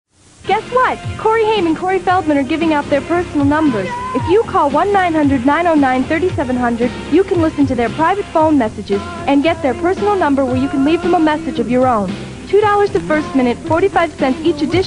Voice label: 1-900 commercial; Corey Hotline 1980s; Phone Losers of America Media Pack 2017